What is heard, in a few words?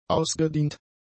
"ausgedient", German; adjectives